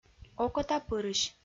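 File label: "окотапырысь" Komi-Zyrian, female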